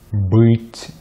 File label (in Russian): "быть", Russian